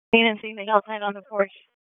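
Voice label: Police Southeast District Dispatch, p25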